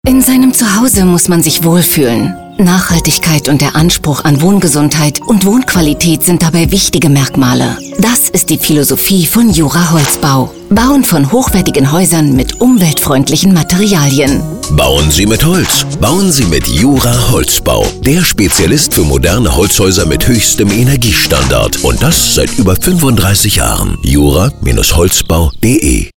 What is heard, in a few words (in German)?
Radio Imagewerbung